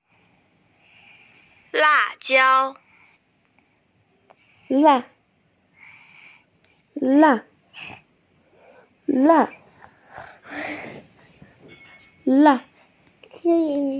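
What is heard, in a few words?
"chili pepper", Mandarin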